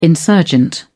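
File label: "insurgent", British English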